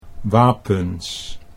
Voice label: "wapens", Dutch